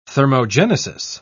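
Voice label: "thermogenesis", British English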